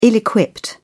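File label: "ill-equipped", English, British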